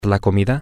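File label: male, spanish; the Food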